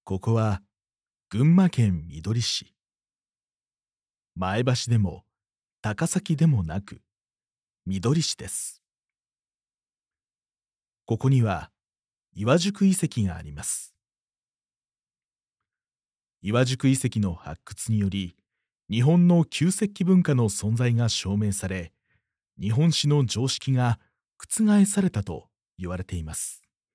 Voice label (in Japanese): male, ナレーション, ゆったりとした; narrator men